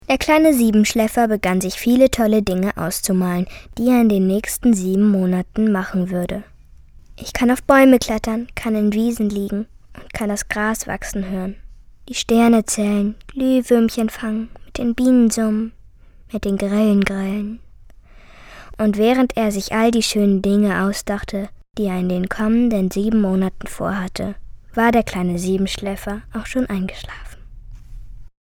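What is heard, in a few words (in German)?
Mädchen; Der kleine Siebenschläfer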